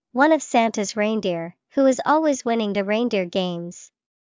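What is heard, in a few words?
"prancer", English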